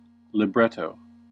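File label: "libretto", American English; pronunciations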